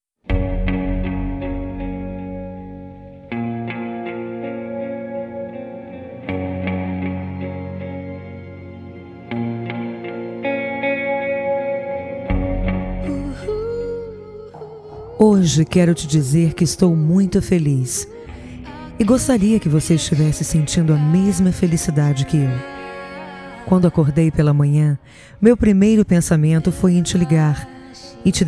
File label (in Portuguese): DIA DOS NAMORADOS F, fem; Telemensagens 2017